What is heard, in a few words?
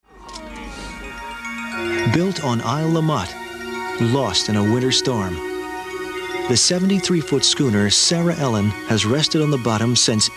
Narr